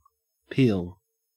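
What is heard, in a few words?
"peel" English, Australian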